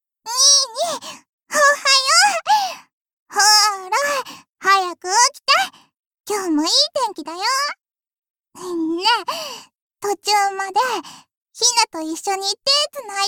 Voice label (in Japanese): 三女 おはようボイス